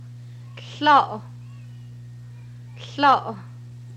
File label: laugh, hupa